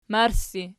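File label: "marssi", nominative, Archi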